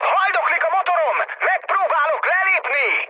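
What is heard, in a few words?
Hungarian damaged engine mood high, speech; War Thunder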